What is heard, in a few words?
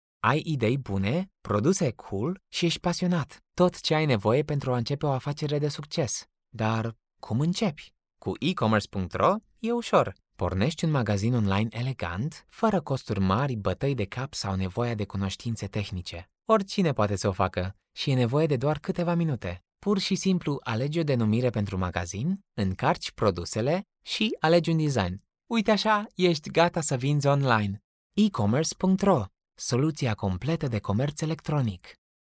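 Romanian male voice over, sales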